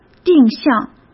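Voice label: "定向", Mandarin Chinese; hsk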